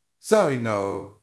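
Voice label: Voice Acting, Spanish, male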